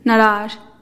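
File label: "narazh", Teotitlán del Valle Zapotec; orange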